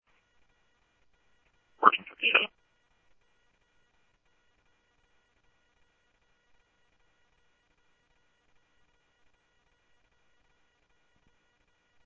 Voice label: Scottsdale Police, scanner audio

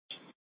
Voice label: Police South Dispatch, scanner; Glendale Police

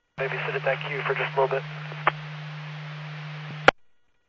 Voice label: Medevac, scanner; NativeAirAmb

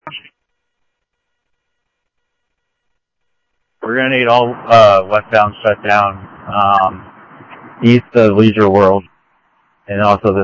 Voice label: Mesa Simulcast, MESA PD, scanner audio

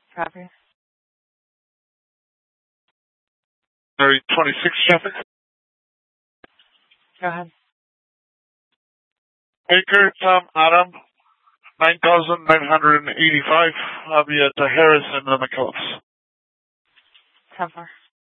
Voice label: Police Dispatch; TOPAZ Regional Wireless Cooperative (TRWC) - Mesa Simulcast; Mesa Police Department; 3 Red Mountain Dispatch

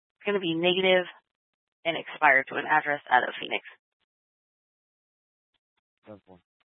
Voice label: Scottsdale Police, Simulcast

